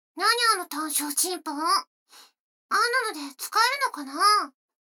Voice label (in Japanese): 女の子台詞, ASMR